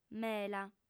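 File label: "mela", female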